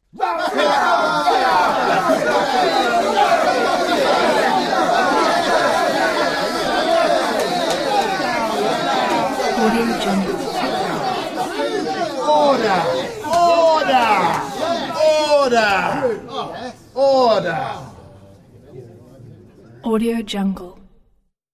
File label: rowdy shouting heckling mps brought to order; soundsvisual